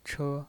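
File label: "che", Mandarin Chinese pinyin voice, first tone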